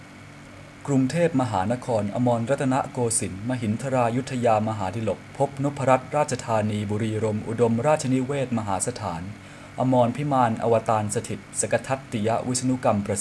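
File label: Thai Bangkok ceremonial name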